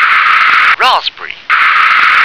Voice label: "raspberry", English

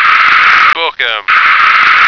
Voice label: "book him", American

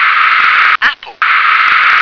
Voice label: "apple", English

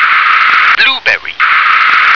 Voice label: "blueberry", English

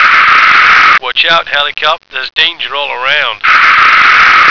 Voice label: "danger", American

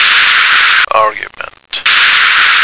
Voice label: "argument", American

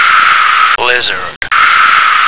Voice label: "lizard", American

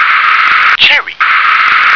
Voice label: "cherry", English

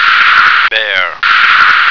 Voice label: "bear", American

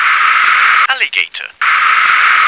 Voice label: "alligator", English

